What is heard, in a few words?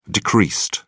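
"decreased", British English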